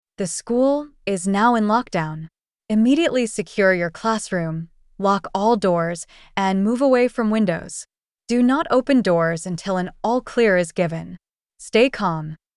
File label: Education emergency Lockdown, voice announcement; Education CX